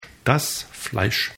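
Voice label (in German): "fleisch", German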